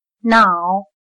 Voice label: "脑", Chino

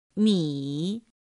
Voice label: "mǐ", Chino

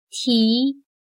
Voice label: "提", Chino

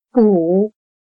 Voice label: "捕", Chino

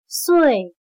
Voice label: "岁", Chino

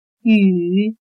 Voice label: "羽", Chino

